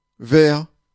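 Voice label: "vert", French; Mots francais phonemes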